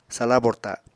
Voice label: "sala borta", Apatani